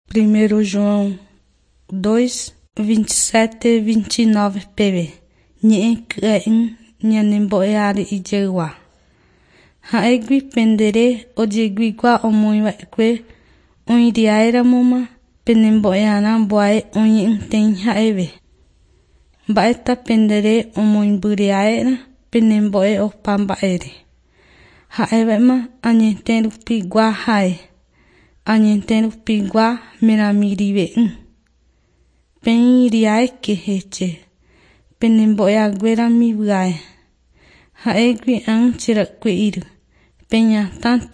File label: john guarani mbya, bible